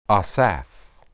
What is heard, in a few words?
"asaph", Hebrew